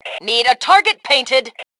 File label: Target Need, Female; T2 Female 02